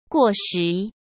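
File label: "过时", Mandarin Chinese; chinese-flashcards; hsk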